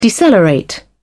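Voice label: "decelerate", English, British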